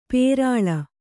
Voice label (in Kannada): "ಪುರುಳಿ", Kannada